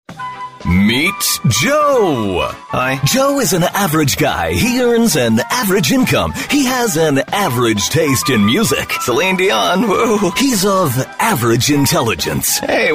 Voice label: GAMESHOW HOST voiceover